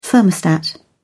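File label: "thermostat", British English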